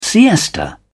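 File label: "siesta", British English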